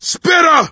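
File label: warn spitter, voice, male; Left 4 Dead 2; coach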